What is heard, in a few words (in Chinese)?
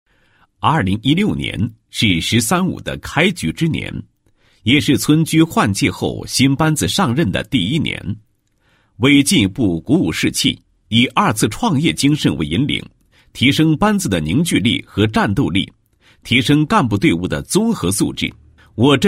男, 纪实专题; 村居干部培训纪实